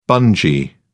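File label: "bungee", British English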